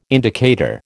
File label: "indicator", American